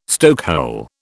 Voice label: "stokehole", British